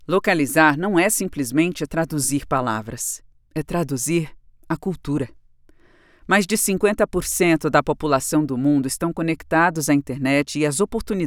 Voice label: portuguese brazil female